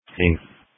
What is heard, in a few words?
Police Tactical Encrypted